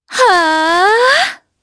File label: Vox Casting jp; Shamilla; kingsraid audio